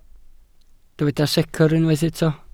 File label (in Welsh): "secr" Welsh